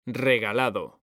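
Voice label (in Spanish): "REGALADO", Spanish; mekanta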